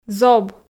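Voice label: "зоб", Komi, female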